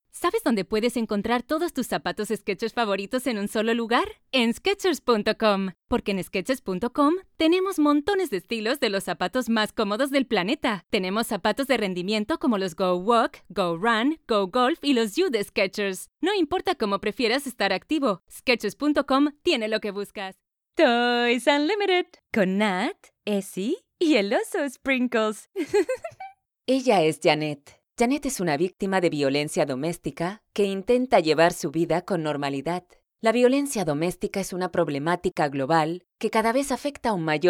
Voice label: neutral Spanish